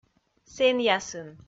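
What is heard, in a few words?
"сэнъясын", Komi, female; kpv audio dict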